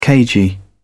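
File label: "cagey", English, British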